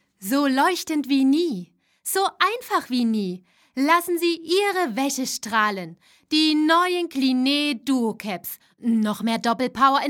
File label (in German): Werbung; Cleanee